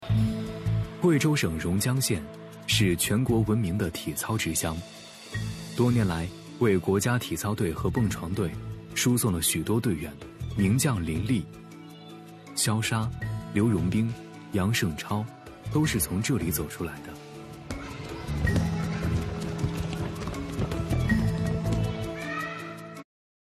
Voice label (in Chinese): 男 纪录片; 少年体校